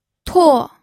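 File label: "tuo4", Mandarin Chinese, pinyin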